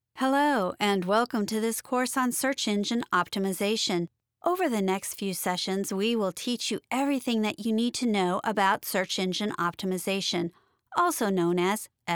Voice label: Corporate E-Learning